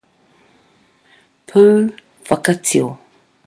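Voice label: "pu whakatio", Māori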